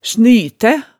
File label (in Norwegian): "snytæ", hemsedalsmaalet